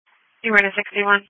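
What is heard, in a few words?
Police Patrol Dispatch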